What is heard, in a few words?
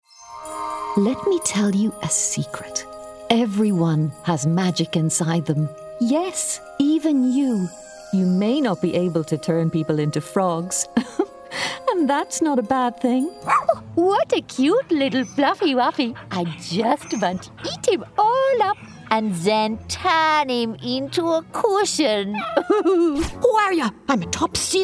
Animation Reel